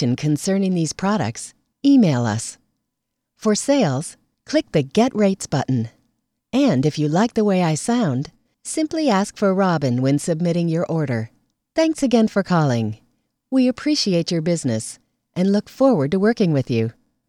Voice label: Voice Sample, female voice